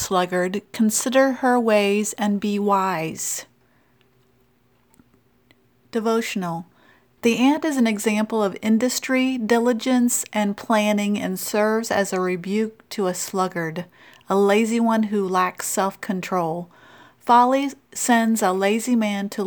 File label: Childrens Bible Verse; Proverbs 66